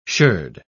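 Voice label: "sherd", British English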